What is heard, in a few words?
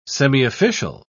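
"semiofficial", English, British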